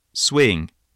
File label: "swing", voice spelling